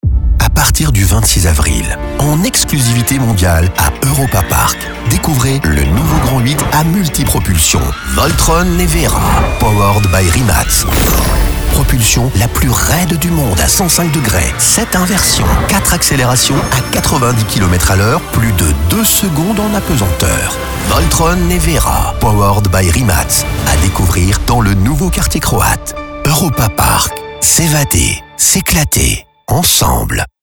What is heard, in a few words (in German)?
franzoesischer sprecher; Französischer Werbesprecher; french voice-over talent male; Voltron Nevera